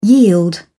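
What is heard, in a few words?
"yield", British English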